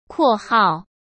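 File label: "括号", Mandarin Chinese; hsk; chinese flashcards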